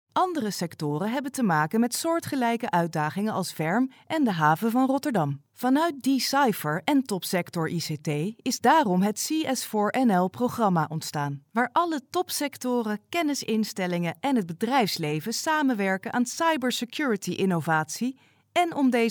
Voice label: female dutch voice over upbeat